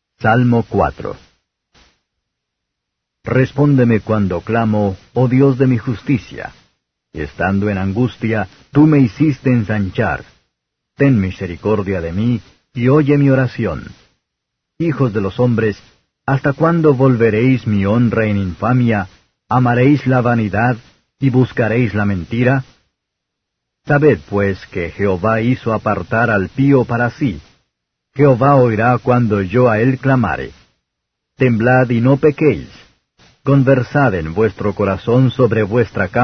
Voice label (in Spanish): salmos spanish